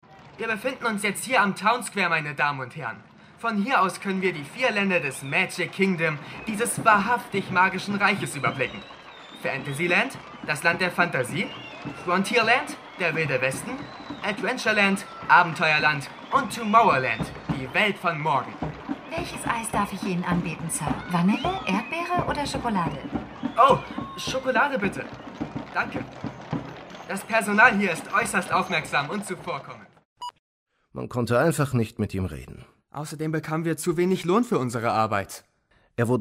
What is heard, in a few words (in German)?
Hörspiel; Walt Disney Zeichner unserer Träume